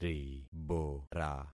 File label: "ribora", concat syllables; Affixing experiment